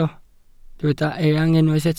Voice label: "eang", welsh